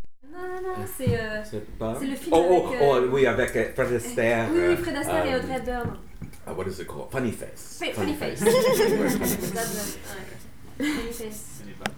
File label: sound conversation